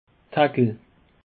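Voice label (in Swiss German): "tackel", Bas Rhin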